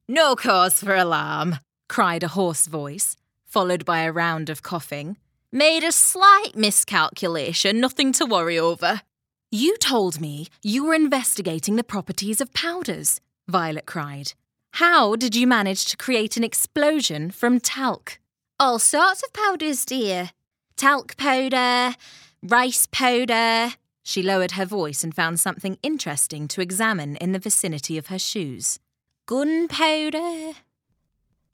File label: Audiobook Accent